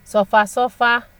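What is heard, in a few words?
"suffer suffer", Nigerian Pidgin, female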